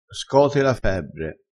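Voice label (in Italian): "scote la febbre", dialetto crespinese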